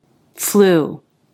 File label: "flew", English